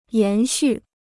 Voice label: "延续", Chinese